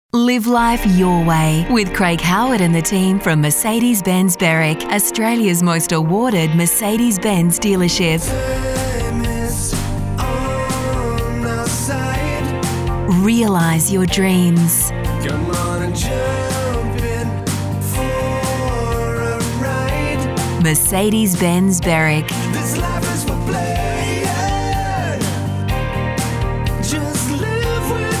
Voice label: VOICE OVER